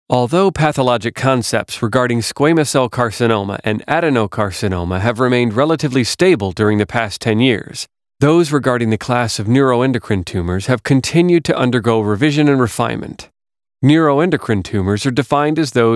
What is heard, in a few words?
casting Bright Engaging